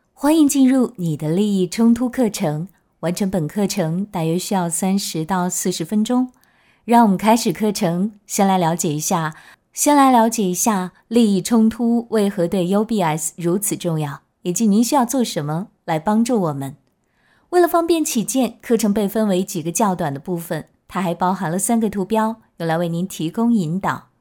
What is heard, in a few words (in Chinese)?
台湾腔